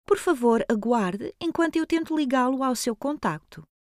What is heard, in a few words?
Privacy Trying, Female Portuguese Asterisk Voice Prompt